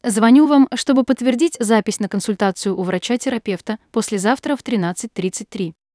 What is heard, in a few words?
brand voice